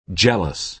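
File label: "jealous", American English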